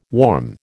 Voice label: "warm", American